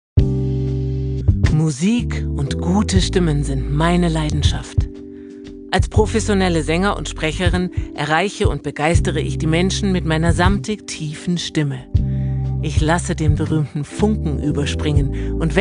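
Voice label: German, female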